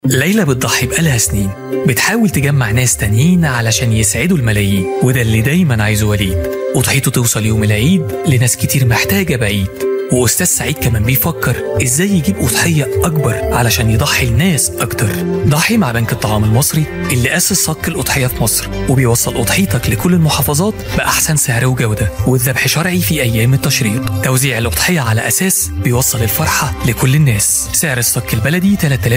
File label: Arabic voice over, male commercial